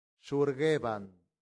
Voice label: "surgebant", latin; santillana